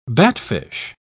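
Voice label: "batfish", British English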